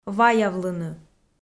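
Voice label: "ваявлыны", Komi, female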